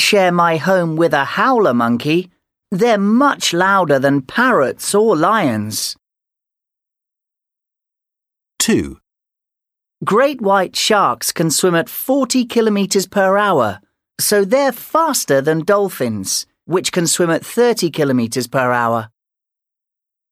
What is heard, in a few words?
speaking pronunciation; unit 4 vacation time